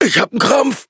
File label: hit, de